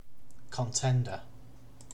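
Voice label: "contender", British English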